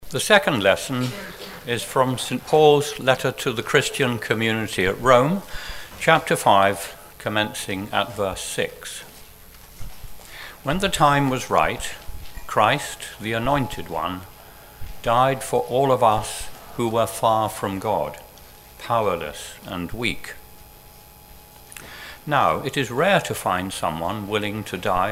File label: clerk read; Romans 5: V6-11; remembrance; from the 'Voice' translation